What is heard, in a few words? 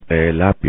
"celery", Spanish; vegetales